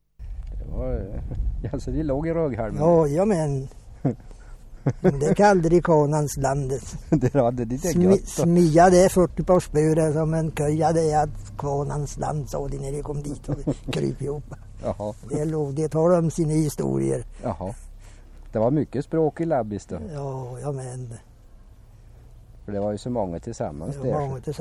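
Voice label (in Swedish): Nor Värmland, dialekter; Svenska sagor; Folkminnen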